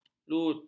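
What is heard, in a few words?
"lut", Malay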